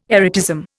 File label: "erotism", Indian